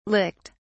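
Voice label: "licked", British English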